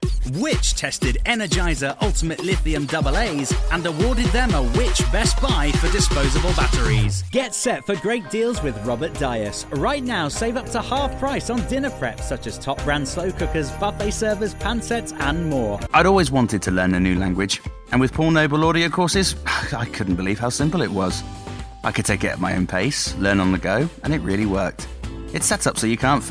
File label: Commercial Reel